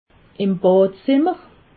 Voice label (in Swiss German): "badzimmer", alsace